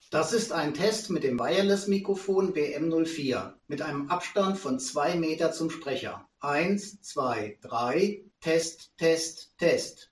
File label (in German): abstand sprecher 2m, mikrofon test sprachqualitaet; COMREON® WM04 kabelloses Konferenz Mikrofon mit Lautsprecher und Echo Canceller, Test sehr gut; 2 Meter Abstand zum Sprecher; 90pro